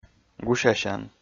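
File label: "гусясян", Komi, male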